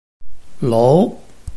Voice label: "lou2", Chinese